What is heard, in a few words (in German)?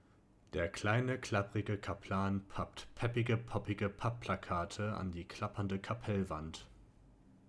Omnidirektional